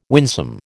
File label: "winsome", American